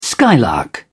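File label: "skylark", British English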